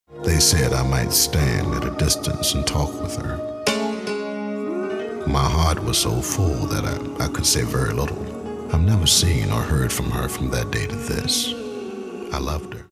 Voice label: romantic